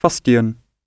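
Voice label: "fustian", English; oxford api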